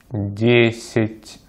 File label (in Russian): "десять", Russian; 俄语区分硬（无腭或普通）和软（腭）辅音。; easypronunciation